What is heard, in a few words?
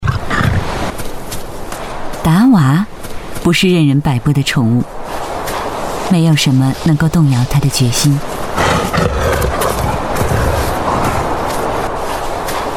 chinese voice over female documentary